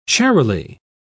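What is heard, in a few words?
"charily", British English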